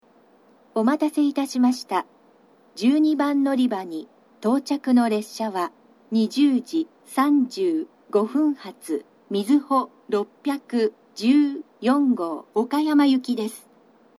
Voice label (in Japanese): のりば接近放送, 新幹線ホーム; 12番のりば接近放送（みずほ614号 岡山行き）; kumamoto